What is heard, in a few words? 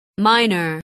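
"minor", English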